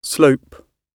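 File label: "slope" British English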